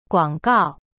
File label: "广告", Chinese; guang gao; cyberchinese